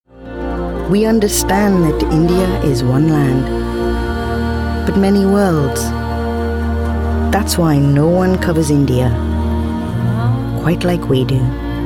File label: Indian accent